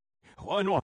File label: oh no, reverse